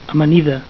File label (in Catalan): "amanida", Catalan; Salad